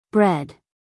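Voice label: "bread", American English, female; everyone-can-use-english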